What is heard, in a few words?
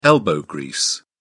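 "elbow grease", British English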